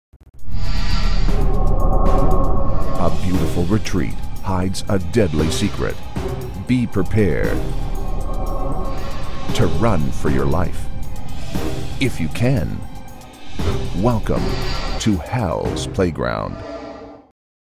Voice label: english canadian video games, canadian english voice actors